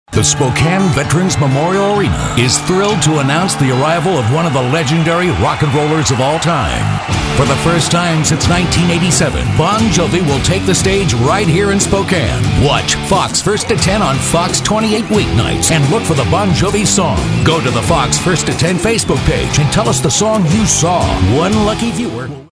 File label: cool announcer